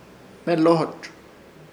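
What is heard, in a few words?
"Hmelorz", Chamacoco word, bondadoso; Ishir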